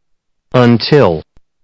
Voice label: "until", listening